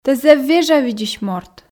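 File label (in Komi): "тэ зэв вежавидзысь морт", Komi, female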